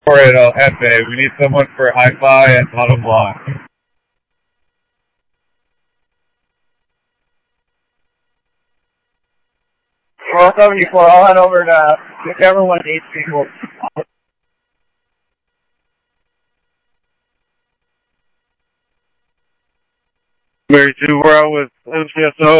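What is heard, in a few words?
police radio dispatch, Scottsdale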